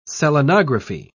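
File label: "selenography", British English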